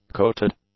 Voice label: "coated", English; adjectives